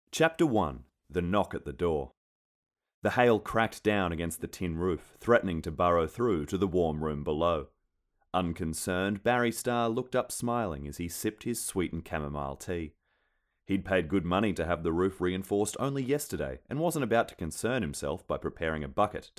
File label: AUDIO BOOK MULTI